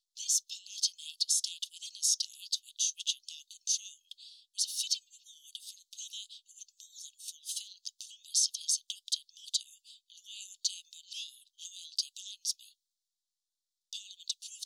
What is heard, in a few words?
talk mono; 15secs